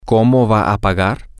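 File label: how is she going to pay, Spanish, female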